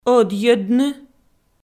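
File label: "ӧдйӧдны", Komi-Zyrian, female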